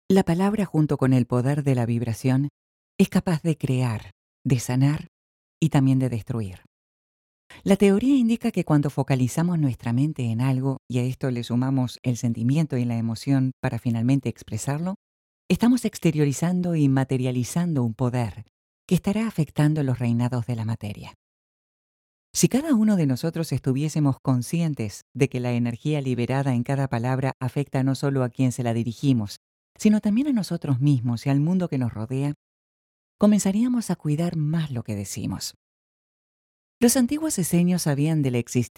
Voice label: spanish argentina female